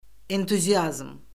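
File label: "entuziazm", Azerbaijani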